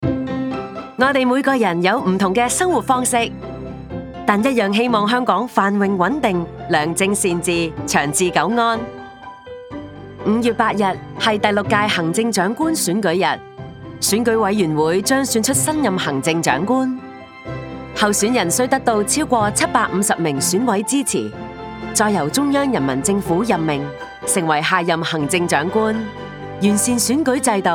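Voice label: Radio, Cantonese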